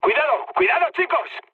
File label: near waypoint, mood med, speech; War Thunder